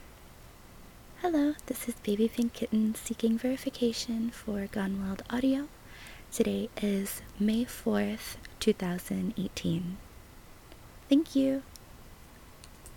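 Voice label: Female Verification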